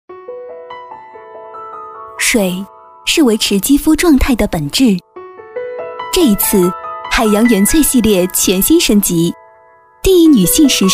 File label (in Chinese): 女 广告; 国语女声配音; 水密码产品篇